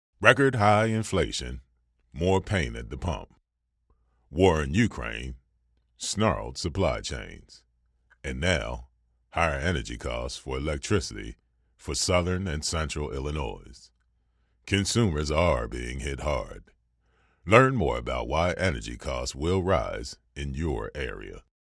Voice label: english american documentaries